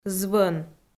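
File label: "звӧн", Komi, female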